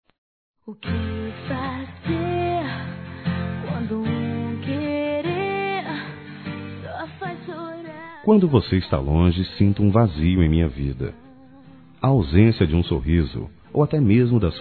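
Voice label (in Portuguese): ROMANTICO DISTANTE, Telemensagens, Românticas masculino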